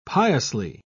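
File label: "piously", British English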